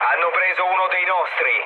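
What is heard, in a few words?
Italian aircraft destroyed mood low, speech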